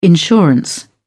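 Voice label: "insurance", British English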